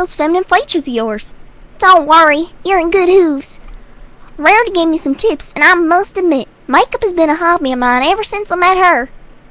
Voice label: You have such nice, long hair, Anon... You know, you're quite effeminate *cute giggle* Umm; Apple Bloom